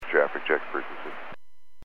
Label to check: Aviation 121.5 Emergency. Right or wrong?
Right